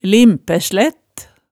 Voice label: "limpæslætt", hemsedalsmaalet tale

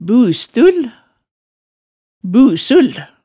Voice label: "bustull, busul", hemsedalsmaalet tale

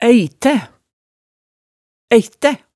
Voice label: "æitæ", hemsedalsmaalet tale

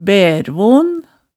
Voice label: "bærvon", hemsedalsmaalet tale

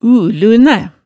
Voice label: "ulunæ", hemsedalsmaalet tale

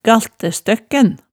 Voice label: "galtæstøkken", hemsedalsmaalet

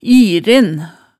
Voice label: "iren", hemsedalsmaalet

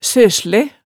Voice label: "søssle", hemsedalsmaalet, tale

